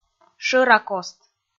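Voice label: "шӧракост", Komi, female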